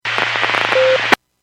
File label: Skywarn 146.67; Stevens Point